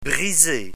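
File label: "briser", French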